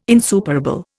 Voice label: "insuperable", Indian

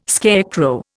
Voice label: "scarecrow", Indian

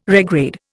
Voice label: "regrade", Indian

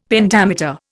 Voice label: "Pentameter", Indian; Dictionary

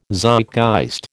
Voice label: "Zeitgeist", American

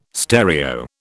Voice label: "stereo", British

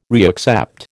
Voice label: "reaccept", American

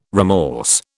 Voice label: "remorse", British

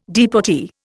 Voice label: "deportee", Indian

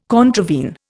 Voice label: "contravene", Indian

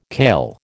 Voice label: "kail", American

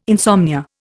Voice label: "insomnia", Indian

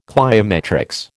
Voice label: "cliometrics", American

